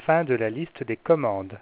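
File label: no more commands, French, Voice Sounds; Samsung SGH-i310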